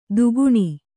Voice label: "ತಗಣ", Kannada

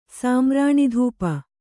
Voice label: "ಸಾಮ್ರಾಣಿಧೂಪ", Kannada

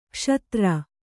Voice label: "ಕಸದಾರ", Kannada

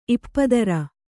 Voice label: "ಇಪ್ಪದರ", kannada

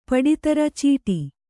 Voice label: "ಪಡಿತರ ಚೀಟಿ", Kannada

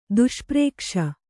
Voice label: "ದುಷ್ಪ್ರೇಕ್ಷ", Kannada

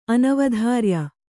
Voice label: "ಅನವಧಾರ್ಯ", Kannada

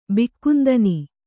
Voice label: "ಬಿಕ್ಕುಂದನಿ", Kannada

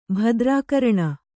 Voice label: "ಭದ್ರಾಕರಣ", Kannada

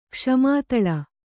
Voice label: "ಕ್ಷಮಾತಳ", Kannada

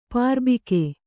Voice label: "ಪ್ರಭಾಗ", Kannada

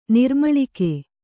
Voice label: "ನೀರ್ಮುಳುಗು", Kannada

